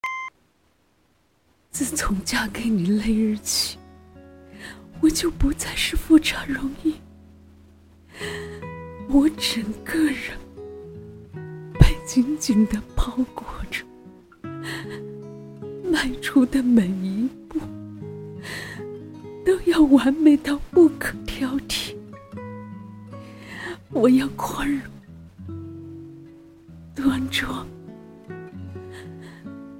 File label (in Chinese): 哭诉; 延禧攻略皇后哭诉